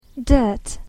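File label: "dirt", English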